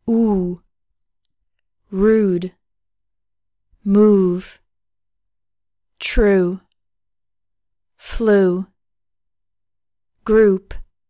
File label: english vowels